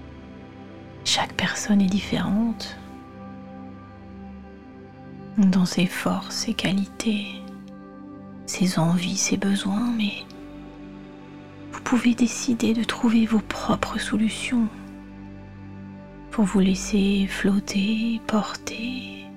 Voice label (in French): seance avec musique